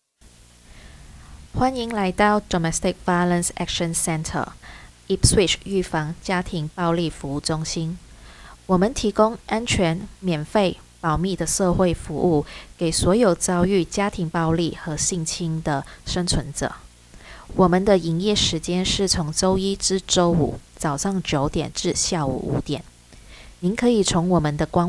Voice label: Mandarin Recording